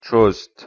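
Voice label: "chost", Persian, male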